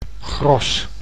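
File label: "gros", Dutch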